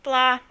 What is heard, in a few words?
gal neutral; Classic Cribbage 1.0